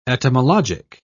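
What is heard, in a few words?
"etymologic", British English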